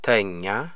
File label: he lay down, amharic